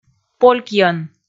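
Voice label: "полькйӧн", Komi, female; kpv audio dict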